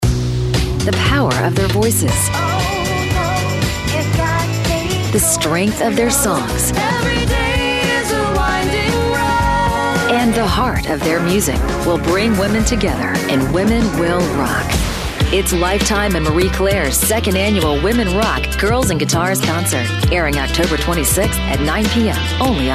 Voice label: commercial